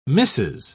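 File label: "missis", British English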